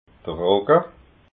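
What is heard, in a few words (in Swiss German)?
"rogga", Alsatian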